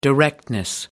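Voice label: "directness", British English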